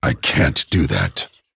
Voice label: Male Dragon; ERROR GENERIC

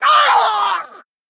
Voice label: Female Dwarf Death

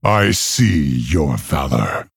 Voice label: Male Orc Well Played

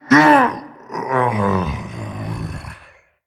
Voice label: Male Demon Death

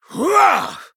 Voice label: Male Human Attack

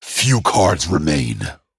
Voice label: Male Dragon Low Cards

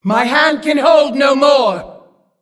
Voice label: Female Demon ERROR HAND FULL

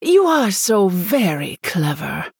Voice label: Female Human Well Played

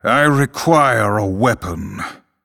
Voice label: Male Night Elf ERROR NEED WEAPON

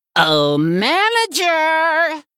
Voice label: Male Gnome Attack

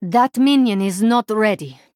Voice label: Female Draenei ERROR SUMMON SICKNESS

